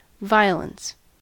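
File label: "violence", US English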